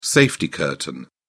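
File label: "safety curtain", English, British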